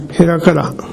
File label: "herakara", minamiyamagata